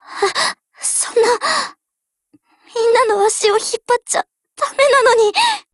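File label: BREAK, Japanese, Voice; LWMMG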